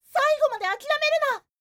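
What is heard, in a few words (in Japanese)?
女性 saigo made akirameruna; 女性 「最後まであきらめるな」; パワフル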